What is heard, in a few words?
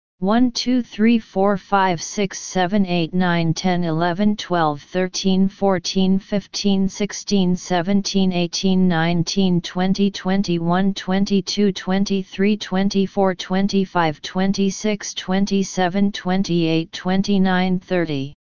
one to thirty slow